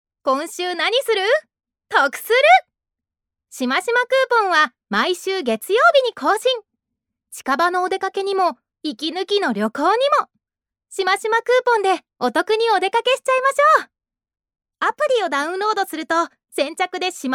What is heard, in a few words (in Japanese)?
ナレーション